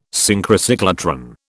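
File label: "Synchrocyclotron", British English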